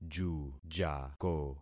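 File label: "jujako", concatenated syllables; Affixing experiment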